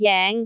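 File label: "jeng5", Cantonese; googleA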